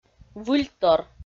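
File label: "выльтор", Komi, female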